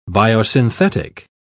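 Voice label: "biosynthetic", British English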